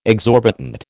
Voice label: "exorbitant", American